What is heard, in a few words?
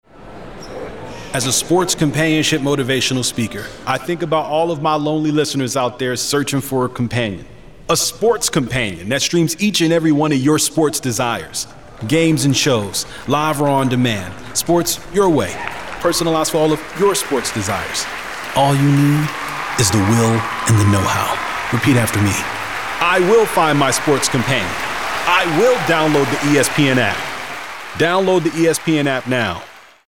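American English, Commercial; GoLocalise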